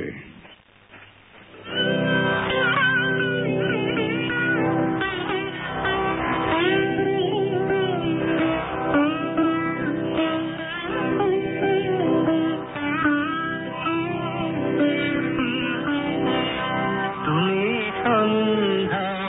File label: india radio 11620 kHz